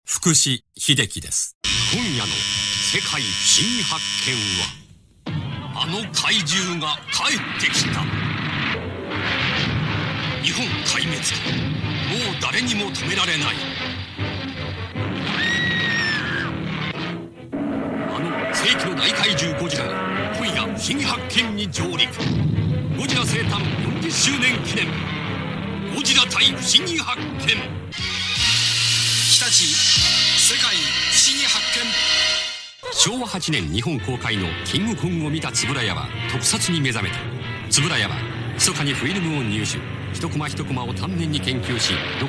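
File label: male tv program, English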